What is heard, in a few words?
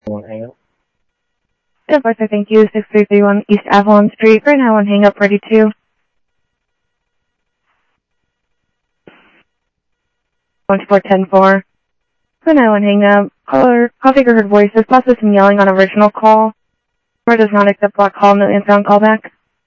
Sheriff, scanner; MCSO - EAST Sheriff-EastCnty; ThompsonPeakScot